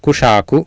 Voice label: "kuSaaku", Sanskrit